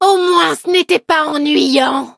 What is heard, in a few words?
defeat, fr; cookierun